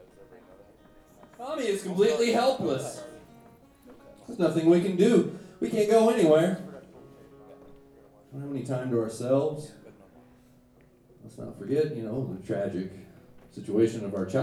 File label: Narration; Bluegrass; at943